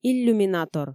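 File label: "иллюминатор", Komi, female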